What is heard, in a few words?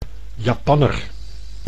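"Japanner", Dutch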